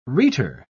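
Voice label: "rhetor", British English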